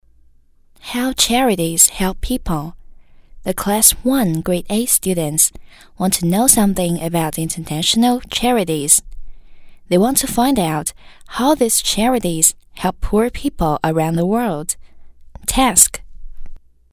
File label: girl, Chinese; Oxford; zhongying